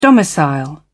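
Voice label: "domicile", British English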